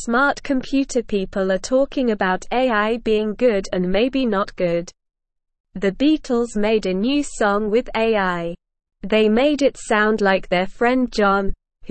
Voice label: English Newsroom Beginner SLOW Reading AI The Word of the Year